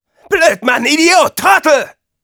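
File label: negative vocalization, German; Blödmann, Idiot, Trottel!; Scout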